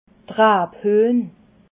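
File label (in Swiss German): "raabhuehn", Alsatian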